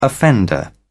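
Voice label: "offender", British English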